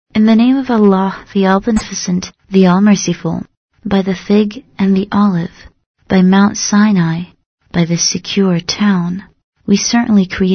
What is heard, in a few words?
Qarai English